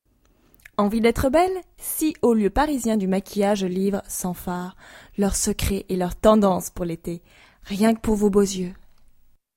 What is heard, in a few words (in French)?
voix off radio; Top Music tendances ete